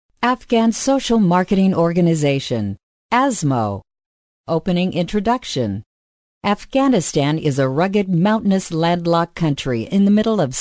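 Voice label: English American female voice Web Narration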